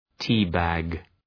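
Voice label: "tea bag", English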